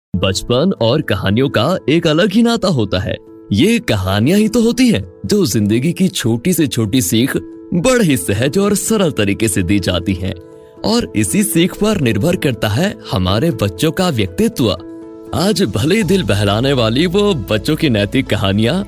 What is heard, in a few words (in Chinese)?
印地语男声